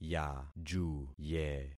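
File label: "yajuye", concatenated syllables; Affixing experiment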